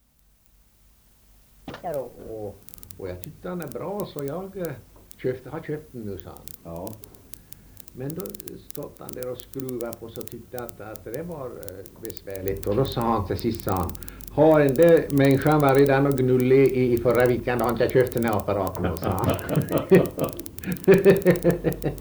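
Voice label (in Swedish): Ovansjö Gästrikland, dialekter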